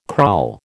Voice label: "kraal", American